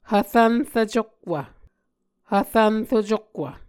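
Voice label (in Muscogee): "hvrvnrvcokwv", Muscogee word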